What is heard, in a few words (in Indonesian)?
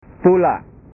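"pula", Indonesian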